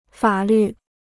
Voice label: "法律", Chinese

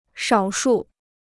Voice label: "少数", Chinese

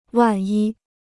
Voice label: "万一", Chinese; 万一 (wàn yī); just in case; if by any chance

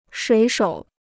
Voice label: "水手", Chinese

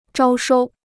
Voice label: "招收", Chinese

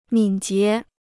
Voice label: "敏捷", Chinese

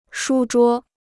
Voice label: "书桌", Chinese

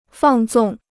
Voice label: "放纵", Chinese

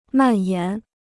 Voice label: "蔓延", Chinese

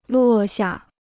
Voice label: "落下", Chinese

